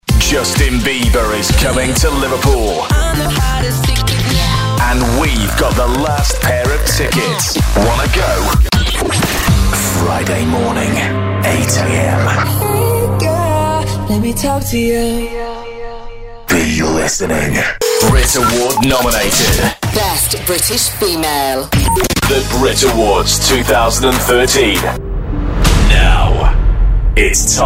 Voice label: UK imaging